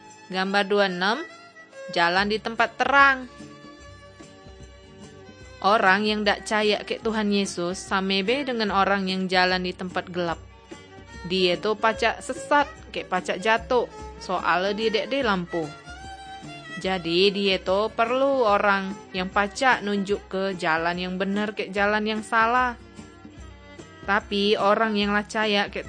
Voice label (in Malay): kabar baik good news malay bangka